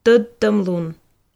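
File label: "тӧдтӧмлун", Komi, female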